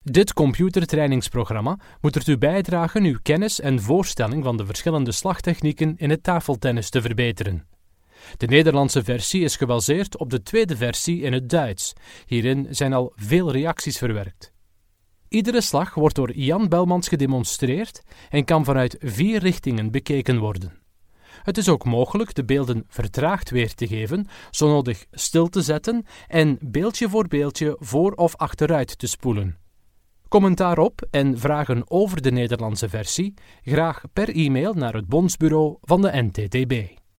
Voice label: eLearning/Training Male Flemish

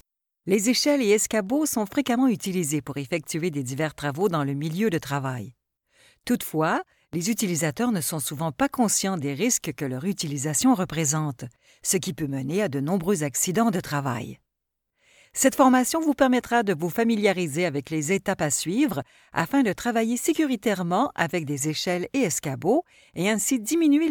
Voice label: eLearning/Training Female French (Canadian)

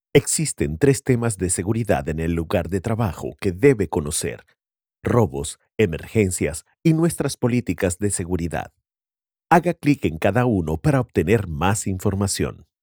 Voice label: eLearning/Training Male Spanish (Latin American)

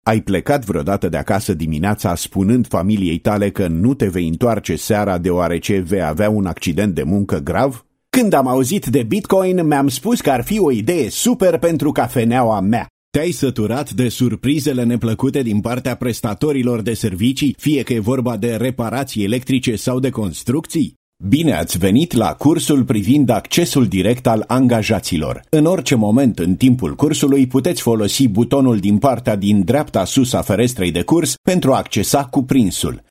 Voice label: eLearning/Training Male Romanian

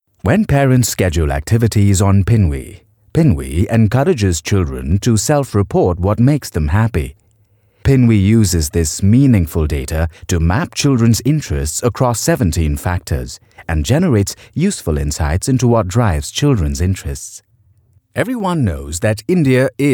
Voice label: Documentaries Male English (Indian)

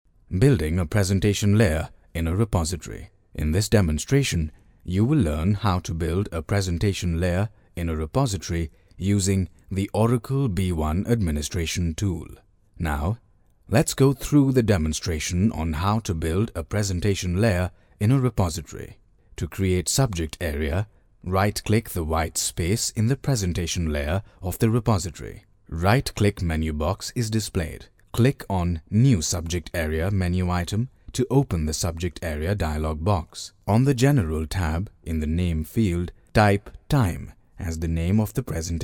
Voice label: eLearning/Training Male English (Indian)

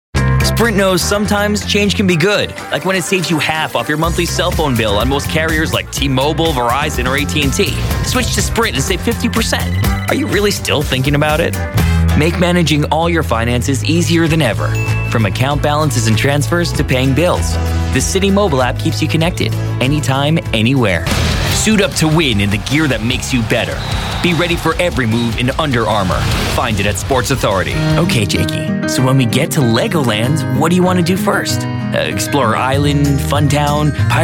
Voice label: eLearning/Training Male English (American)

